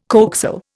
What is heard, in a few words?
"coaxial", Indian